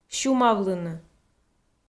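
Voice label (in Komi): "сюмавлыны", Komi, female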